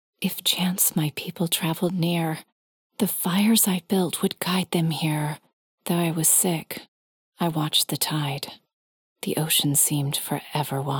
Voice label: Poetry; Fires